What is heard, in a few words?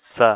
"sa" letter, Hindi